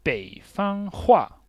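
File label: "Beifanghua", Chinese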